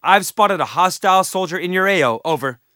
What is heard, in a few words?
spot; bf3